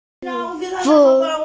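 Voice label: "mi4feng1", Mandarin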